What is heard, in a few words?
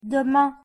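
pronunciation "demain", French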